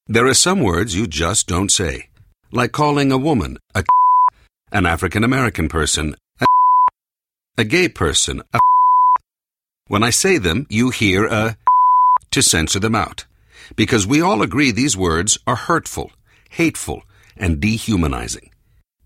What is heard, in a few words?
rock PSA